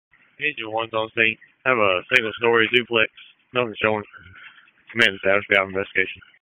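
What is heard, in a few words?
FIRE Operations, p25; FIRE OPS 1; wake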